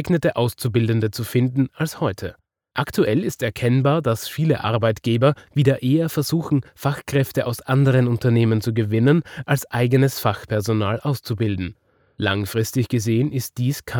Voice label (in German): ELEARNING Arbeitsmarkt